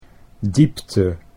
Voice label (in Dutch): "diepte", Dutch